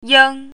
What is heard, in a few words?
"ying1", Chinese pinyin